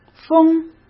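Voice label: "封", Mandarin Chinese; chinese-flashcards; hsk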